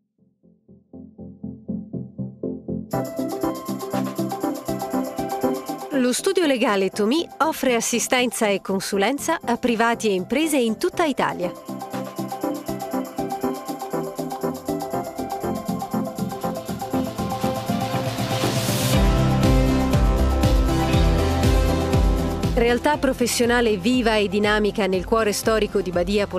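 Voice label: italian corporate videos, Female; voice actor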